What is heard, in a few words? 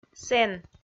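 "сэн", Komi, female; kpv audio dict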